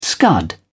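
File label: "scud", English, British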